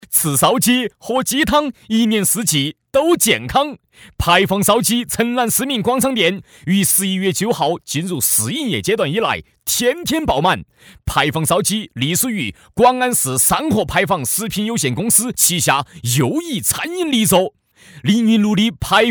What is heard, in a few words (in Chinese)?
男 餐饮促销（四川方言）